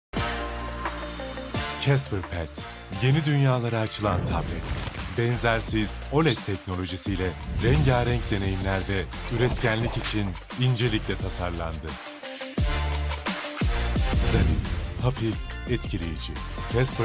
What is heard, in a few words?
casper pad h10 tablet zarif hafif etkileyici, Turkish, Male